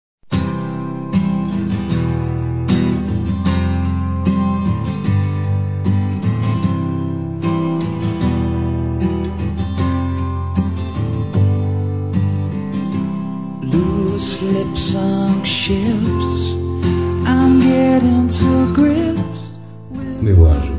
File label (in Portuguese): Amor Você Masculino; Telemensagens